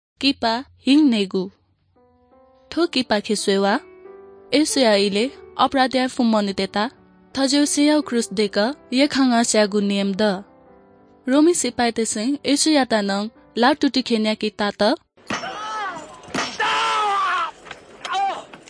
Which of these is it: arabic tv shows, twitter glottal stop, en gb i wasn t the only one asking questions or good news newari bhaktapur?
good news newari bhaktapur